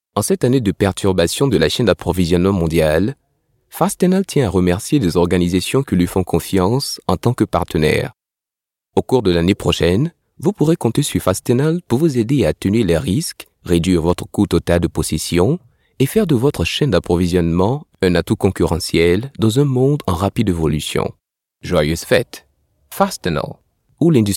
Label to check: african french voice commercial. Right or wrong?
Right